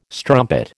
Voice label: "strumpet", American